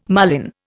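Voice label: "marlin", Indian English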